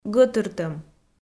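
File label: "гӧтыртӧм", Komi, female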